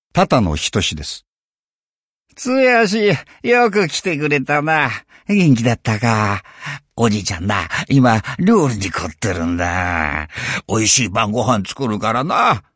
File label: drama old